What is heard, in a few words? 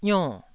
"ionn4", taiwanese syllable